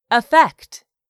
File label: "effect", English; 3000 words